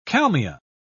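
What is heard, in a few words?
"kalmia", British English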